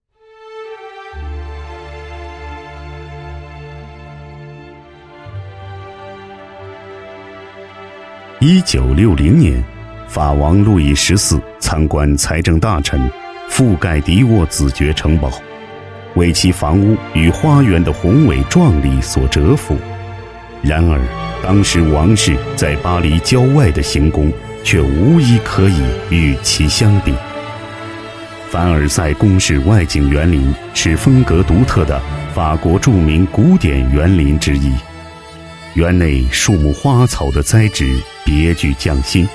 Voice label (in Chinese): 男 记录片, 国语男声配音, 浑厚; 凡尔赛宫介绍